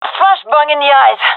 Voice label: blinded, gendermerie fem; vo